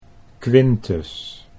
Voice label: "Quintus", Dutch

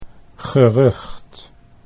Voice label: "gerucht", Dutch

